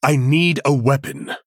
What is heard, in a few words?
Male Human ERROR NEED WEAPON